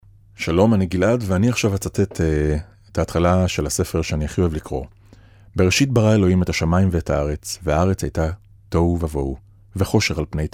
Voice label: hebrew male

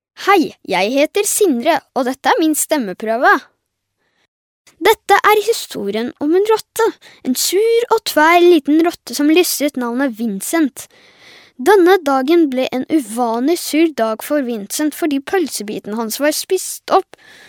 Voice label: norwegian girl

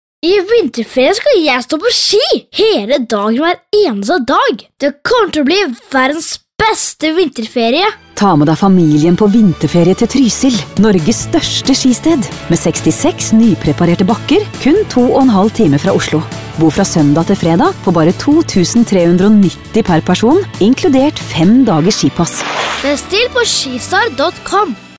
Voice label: norvegian boy

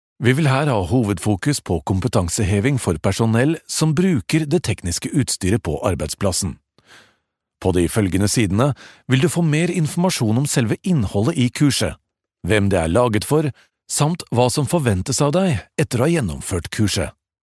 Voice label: norwegian male

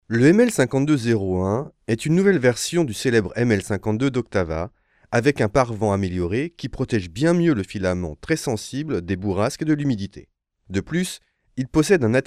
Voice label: french male

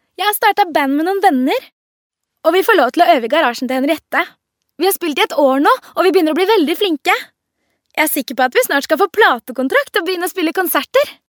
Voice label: norvegian girl

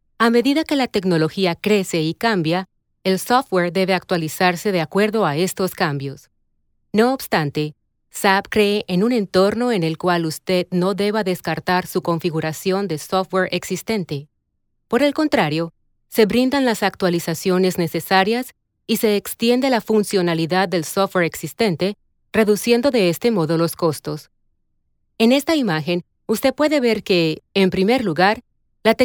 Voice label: mexican female

